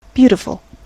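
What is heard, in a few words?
"beautiful", US English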